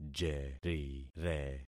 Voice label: "jerire", concat syllables; Affixing experiment

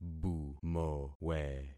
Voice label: "bumowe", concat syllables; Affixing experiment

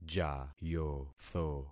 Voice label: "jayotho", concat syllables; Affixing experiment

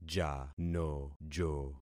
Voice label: "janojo", concat syllables; Affixing experiment

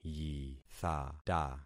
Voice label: "yithata", concat syllables; Affixing experiment

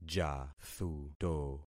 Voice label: "jathuto", concat syllables; Affixing experiment

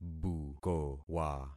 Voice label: "bukowa", concat syllables; Affixing experiment

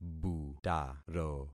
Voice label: "butaro", concat syllables; Affixing experiment

